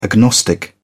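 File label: "agnostic", British English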